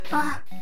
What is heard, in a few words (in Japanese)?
ダメージボイス; 悪魔城ドラキュラ(FC版)